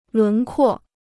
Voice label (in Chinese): "轮廓", Chinese